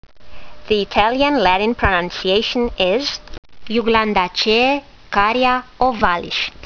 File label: latin; Carya ovalis